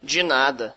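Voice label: you're welcome, Brazilian Portuguese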